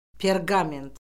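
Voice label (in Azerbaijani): "perqament", Azerbaijani; Orfoqrafiya Lüğəti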